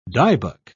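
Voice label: "dybbuk", British English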